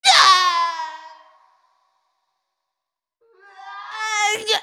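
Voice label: dying, English; Rumble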